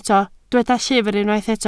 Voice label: "llyfr", Welsh

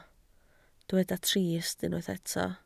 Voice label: "trist", Welsh

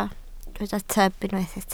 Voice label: "tyb", Welsh

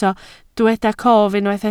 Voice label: "cof", Welsh

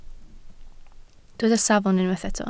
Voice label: "safon", Welsh

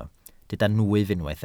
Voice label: "nwyf", welsh

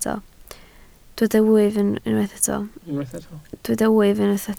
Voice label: "wyf", Welsh

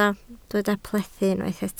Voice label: "plethu", Welsh

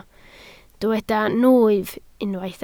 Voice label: "nwyf", Welsh

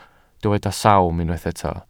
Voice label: "sawm", welsh

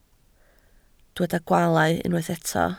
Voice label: "gwaalau", Welsh

